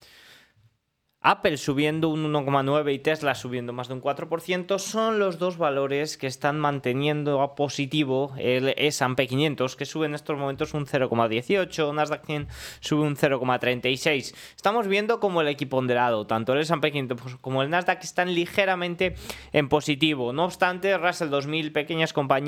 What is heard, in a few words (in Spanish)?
radio